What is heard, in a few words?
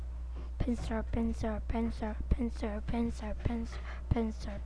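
"pencil", spoken word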